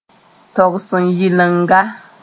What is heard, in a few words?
"togs n yɩlenga", Mooré